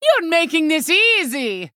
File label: Female Draenei Attack